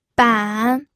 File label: "ban3", pinyin